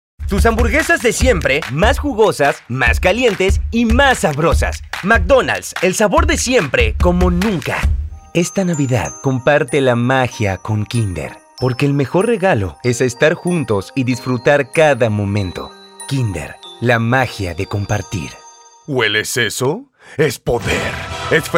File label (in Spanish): espanol neutro